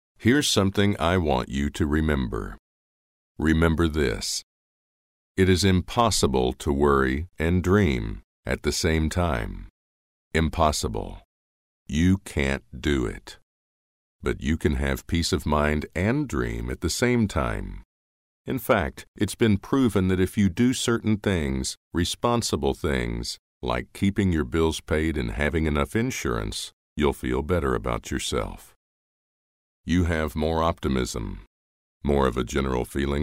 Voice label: Motivational